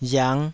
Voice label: "riang5", taiwanese